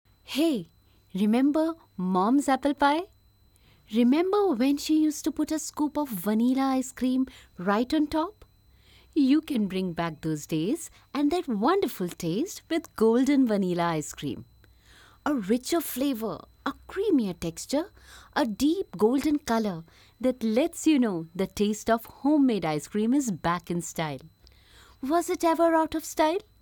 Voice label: ice-cream ad English

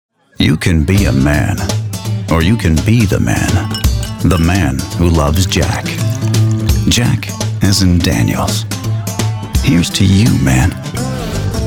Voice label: Commercials

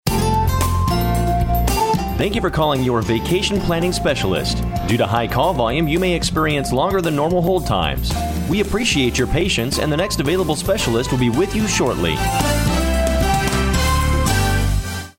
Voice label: Reservations Call Hold

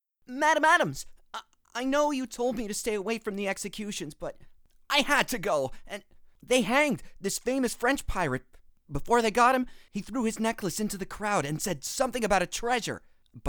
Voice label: Boy; Sea of Lies

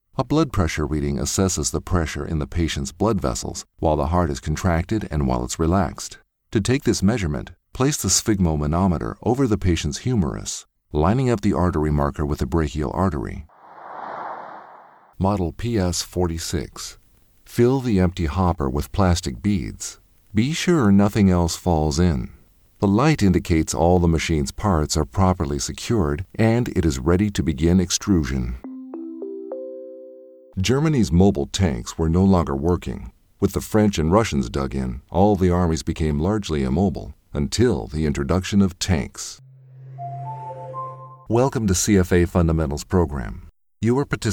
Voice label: e Learning

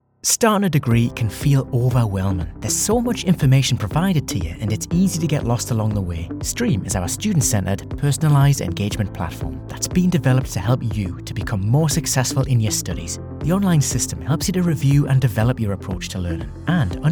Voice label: Explainer

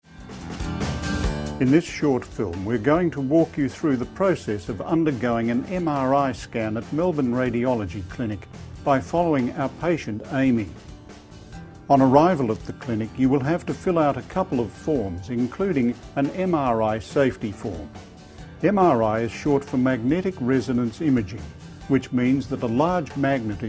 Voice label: Medical narration MRI

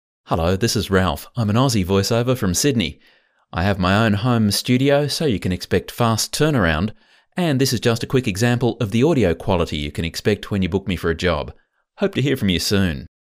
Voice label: studio

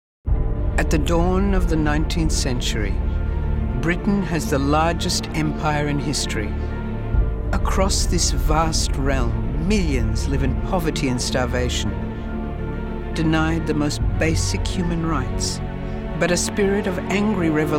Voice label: Compilation Voice, female

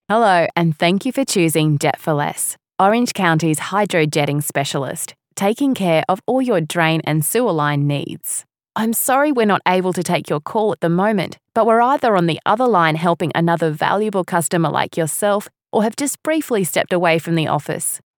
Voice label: On Hold Sweet and charming

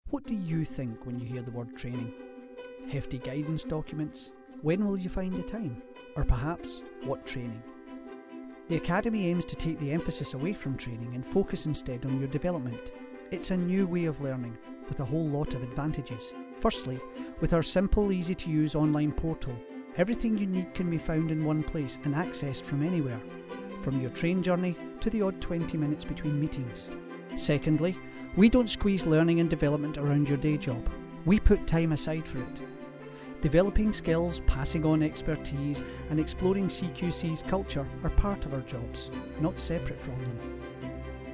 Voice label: animation

